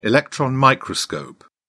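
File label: "electron microscope", British English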